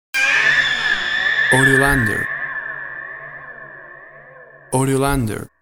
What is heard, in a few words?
Witches Voice High, A scary; A scary and haunting horror atmosphere with element of thrill